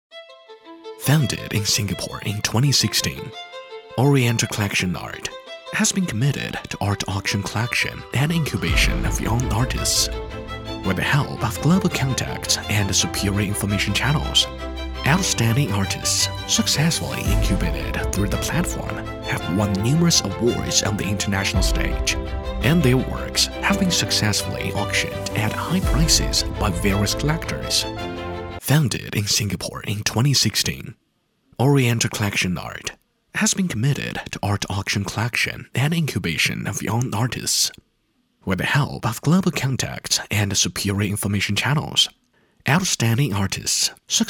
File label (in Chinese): 男 英语配音 浑厚 品质感广告